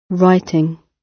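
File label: "writing", British English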